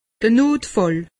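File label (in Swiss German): "notfall", Bas-Rhin; medecine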